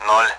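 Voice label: Speech, Russian, AWACS; Digits; DCS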